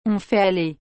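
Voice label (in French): "On fait aller", French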